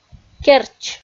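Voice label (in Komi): "керч", Komi, female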